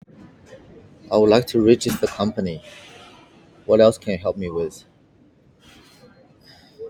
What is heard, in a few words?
voice message